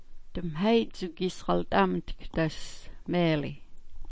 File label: "das", smalgyax